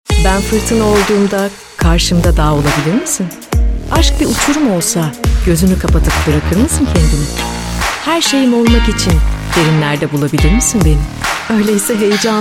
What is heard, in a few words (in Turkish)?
reklam cazibelii suh; biscolata